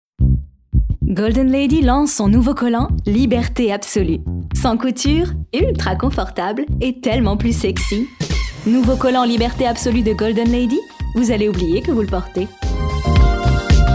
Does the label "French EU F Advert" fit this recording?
Yes